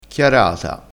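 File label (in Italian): "chiarata", dialettale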